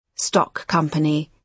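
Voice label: "stock company", British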